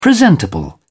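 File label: "presentable", British English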